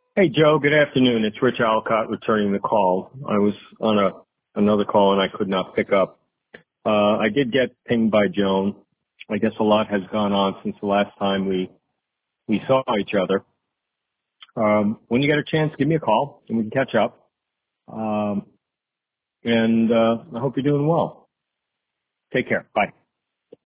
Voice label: voicemail; latest developments